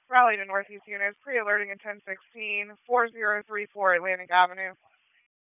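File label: Police Dispatch, p25; Raleigh Police Northeast District Disp; wake p25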